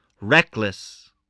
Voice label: "reckless", voice, english